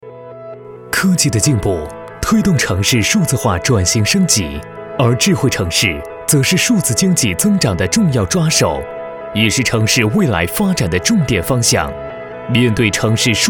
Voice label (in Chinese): 科技解说